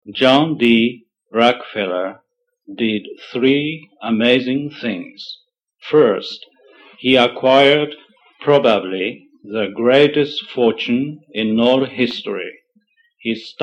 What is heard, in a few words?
Japanese